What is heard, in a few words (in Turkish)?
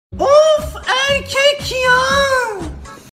erkek yaaa